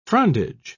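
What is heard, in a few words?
"frondage", British English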